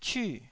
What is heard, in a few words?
"cyu4", Taiwanese syllable